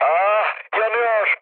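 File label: Turkish on fire, mood low, speech; War Thunder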